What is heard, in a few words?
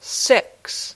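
"six", English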